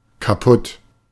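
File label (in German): "kaputt", German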